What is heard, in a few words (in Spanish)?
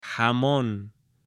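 "jamon" Spanish, female; ALIMENTOS; AppLenguaje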